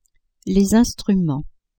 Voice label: "instruments", French